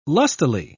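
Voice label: "lustily", British English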